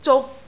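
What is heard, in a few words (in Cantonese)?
"zuk3", Cantonese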